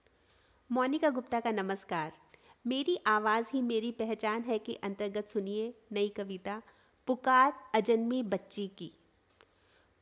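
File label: Audio Poem Girl Foeticide; female foeticide india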